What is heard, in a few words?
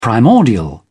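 "primordial", British English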